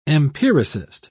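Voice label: "empiricist", British English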